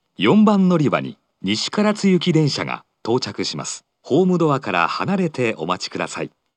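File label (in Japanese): 接近-西唐津行, 4番のりば放送; 福岡市地下鉄 空港線・箱崎線 中洲川端駅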